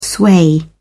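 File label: "sway", British English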